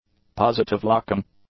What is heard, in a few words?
"positive-locking", english; adjectives